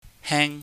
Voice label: "heng", chinese